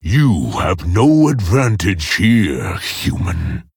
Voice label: Male Orc; Story Minion Varok; Story Uther Mission3 Intro